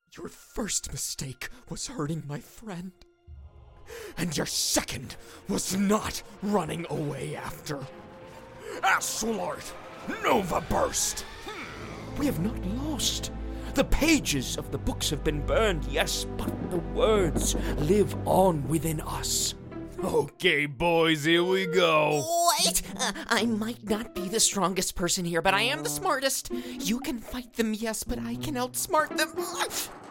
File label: VO Character Demo